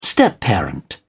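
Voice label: "stepparent", British English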